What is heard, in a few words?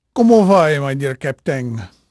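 Portuguese male, voice; Pirates Of The Caribbean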